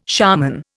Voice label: "shaman", Indian

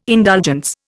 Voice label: "indulgence", Indian

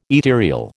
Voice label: "ethereal", American

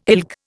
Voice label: "ilk", Indian

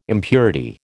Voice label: "impurity", American

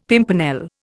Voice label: "pimpernel", Indian; Dictionary

